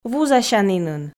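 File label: "вузасянінын", Komi, female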